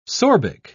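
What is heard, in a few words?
"sorbic", British English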